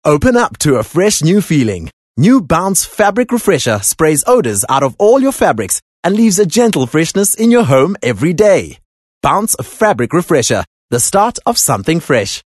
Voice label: English Bright